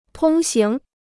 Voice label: "通行", Chinese

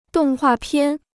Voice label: "动画片", Chinese

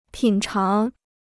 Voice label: "品尝", Chinese

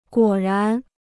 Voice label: "果然", Chinese

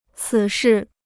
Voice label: "此事", Chinese

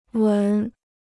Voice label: "闻", Chinese

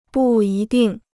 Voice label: "不一定", Chinese

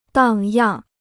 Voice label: "荡漾", Chinese

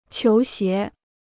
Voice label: "球鞋", Chinese

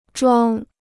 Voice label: "装", Chinese

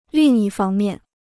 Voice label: "另一方面", Chinese

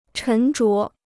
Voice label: "沉着", Chinese

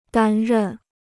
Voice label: "担任", Chinese

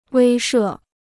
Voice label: "威慑", Chinese

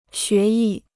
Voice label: "学艺", Chinese

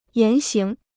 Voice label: "言行", Chinese

